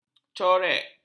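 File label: "corek", Malay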